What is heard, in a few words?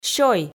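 "сёй", Komi, female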